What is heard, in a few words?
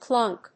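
"clunk", English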